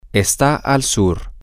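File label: its to the south, spanish, male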